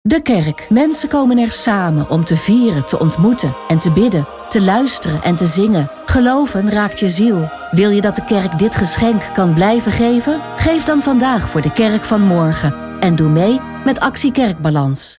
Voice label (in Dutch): Commercial Actie Kerkbalans; NPO STER